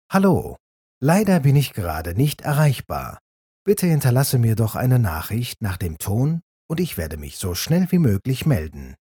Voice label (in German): ich ansage, anrufbeantworter